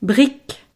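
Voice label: "brique", French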